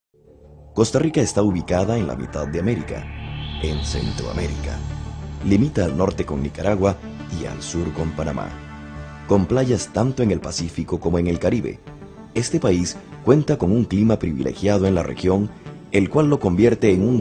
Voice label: spanish listening intermediate